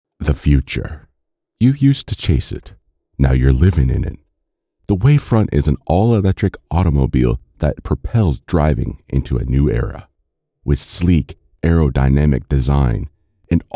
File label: Automative Commercial